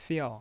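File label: "fiao" Mandarin Chinese, fourth tone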